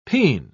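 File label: "pean", British English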